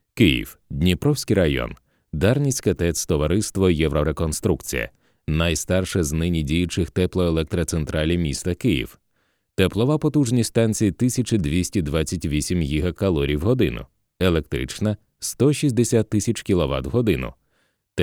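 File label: Ukrainian, male, narration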